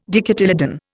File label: "dicotyledon", Indian